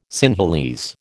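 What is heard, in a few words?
"Sinhalese", American English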